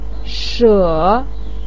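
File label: "she", Chinese pinyin, third tone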